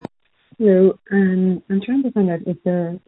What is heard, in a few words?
call recording